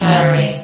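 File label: "array", female; vox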